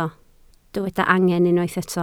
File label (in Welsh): "angen", Welsh